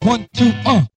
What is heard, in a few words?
"1 2 uh", voice; numbers and letters